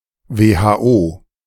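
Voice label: "WHO", German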